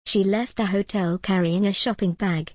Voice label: en gb she left the hotel carrying a shopping bag

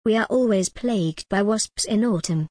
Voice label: en gb we are always plagued by wasps in autumn

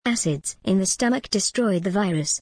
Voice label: en gb acids in the stomach destroy the virus

